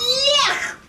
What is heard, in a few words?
yuck kid; Voice